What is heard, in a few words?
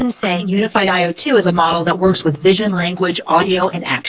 woman